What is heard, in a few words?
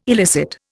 "elicit", Indian